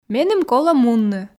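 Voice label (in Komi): меным колӧ мунны, Komi, female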